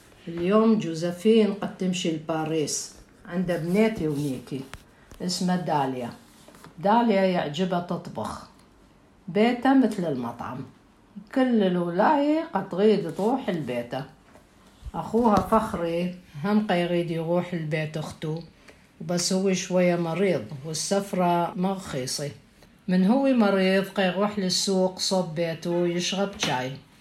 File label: Baghdadi Judeo-Arabic; Audio recording 4.4.9 to accompany section on Lesson 4: Food, Drinks and Travelling